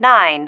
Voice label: "nine", female